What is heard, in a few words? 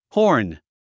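"horn", English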